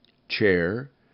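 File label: "chair", English; RhymeTest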